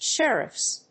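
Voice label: "Sheriffs", English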